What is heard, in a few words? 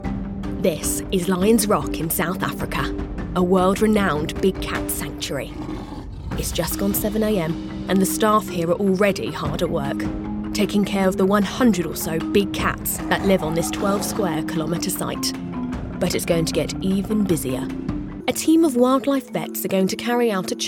Narration